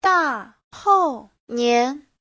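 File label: "dàhòunián", Mandarin Chinese; Giáo trình Hán ngữ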